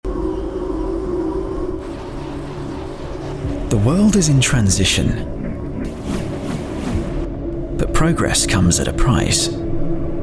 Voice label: Radar Systems corporate video voiceover young UK male voice over artist; Robin